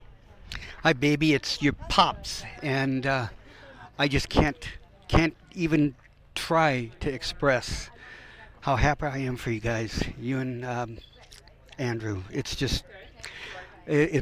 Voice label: audio guest book voicemail US